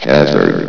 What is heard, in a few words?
"hazard", vox